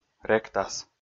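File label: "ректас", Komi, male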